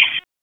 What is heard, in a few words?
Raleigh Police Northeast District Tac, p25; wake